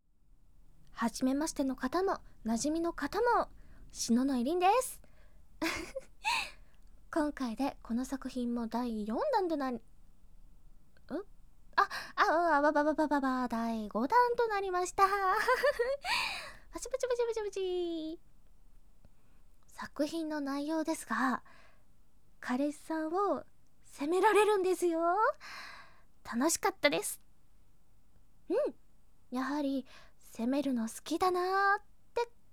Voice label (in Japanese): 声優からの自己紹介と告知; いじめる貴方をイジメタイ!